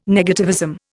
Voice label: "negativism", Indian